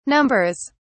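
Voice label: "numbers", British English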